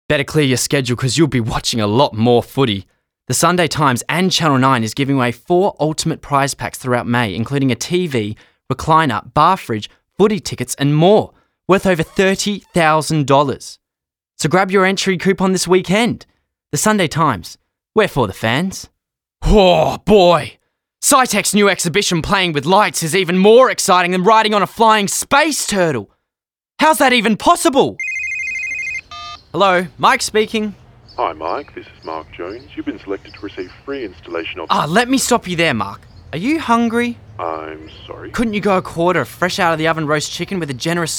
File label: voice demo; Actor, Voiceover; Location Melbourne